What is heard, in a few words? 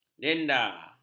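"denda", Malay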